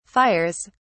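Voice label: "fires", British English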